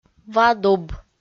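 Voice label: "ва дуб", Komi-Zyrian, female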